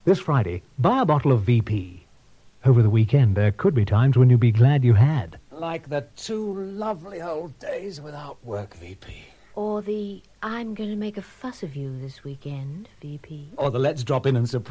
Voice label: Radio Advert; VP; Radio Hallam